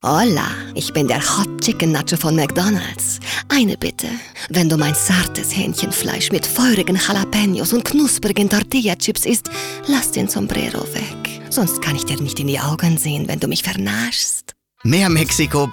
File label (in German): Akzent Spanisch